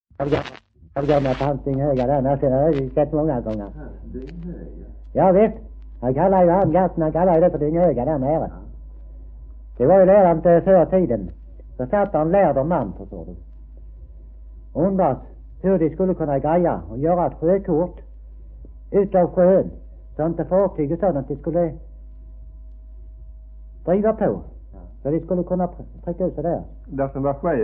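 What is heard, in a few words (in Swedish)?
Degeberga Skåne, svenska sagor dialekter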